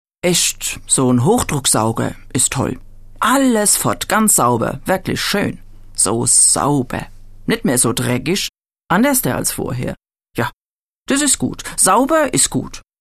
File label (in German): hessische werbung